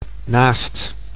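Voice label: "naast", Dutch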